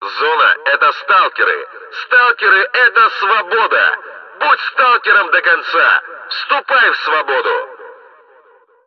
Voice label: megaphone